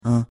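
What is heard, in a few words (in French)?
"un" French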